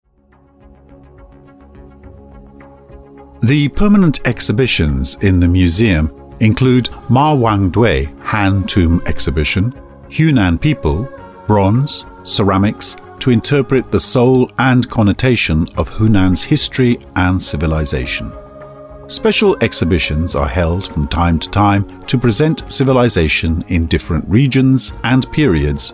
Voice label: 外籍母语男浑厚专题

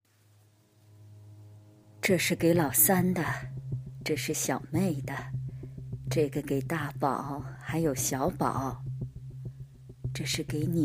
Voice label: 模仿老太太

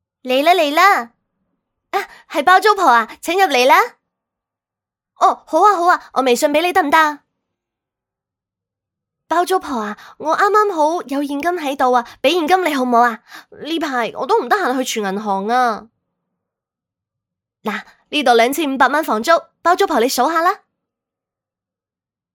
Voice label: 粤语 女孩

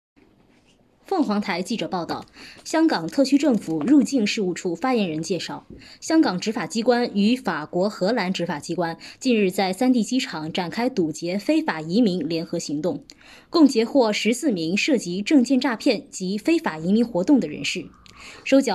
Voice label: 新闻播读海关

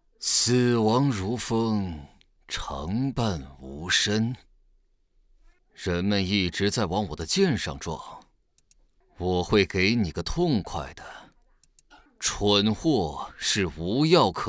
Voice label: 角色 配音; 亚索

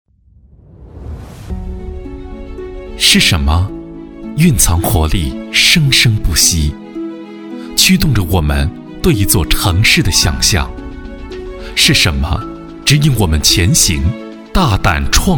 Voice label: 科技感; 传化高新城

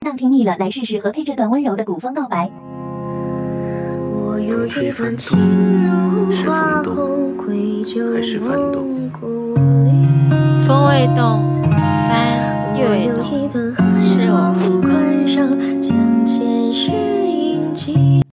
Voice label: 古风对白

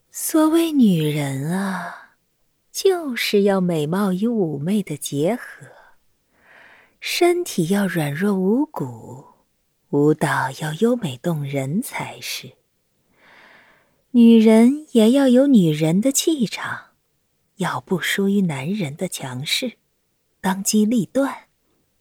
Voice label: 妩媚慵懒女

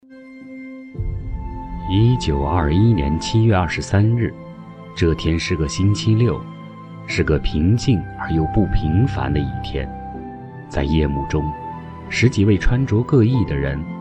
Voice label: 红色纪录片

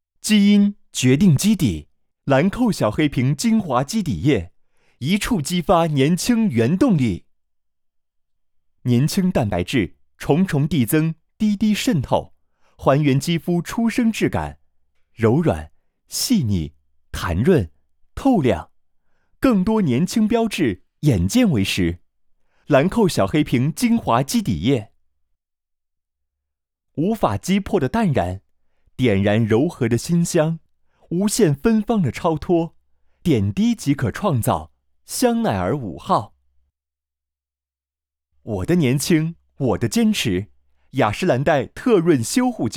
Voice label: 品质广告化妆品类

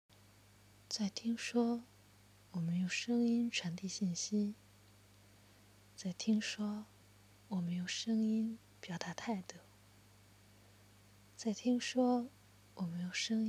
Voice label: 讲述类

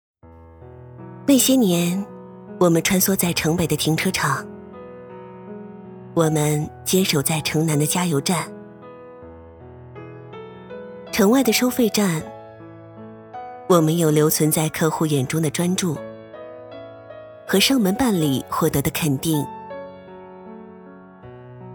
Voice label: 走心旁白

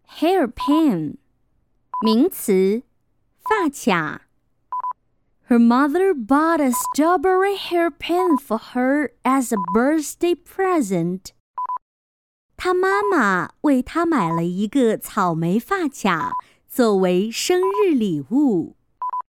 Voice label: 中英文双语女声

